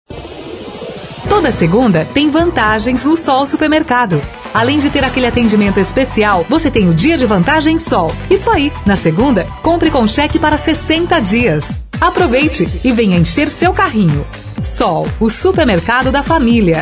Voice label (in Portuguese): spot comercial